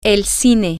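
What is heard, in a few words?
movie theater, Spanish, female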